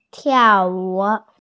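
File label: "tiao4wu3", Chinese, female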